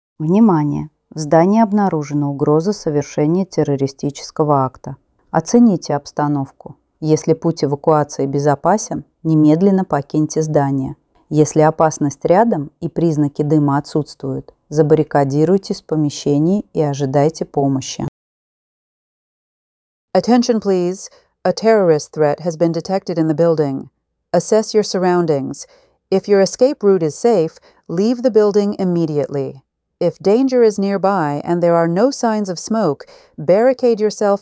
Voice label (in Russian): opoveshchenie vooruzhennoe napadenie ru en